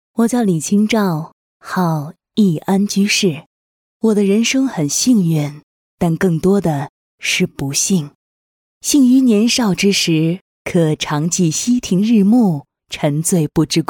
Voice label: 人物自述

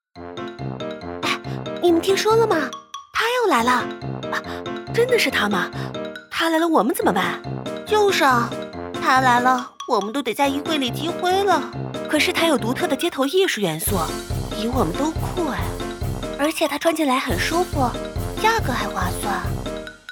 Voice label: 服饰广告全部角色动画; 服饰广告全部角色-mg动画

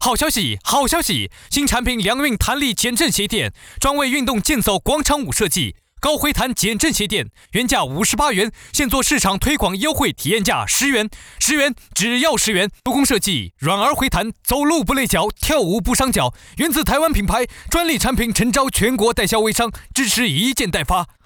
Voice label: 促销

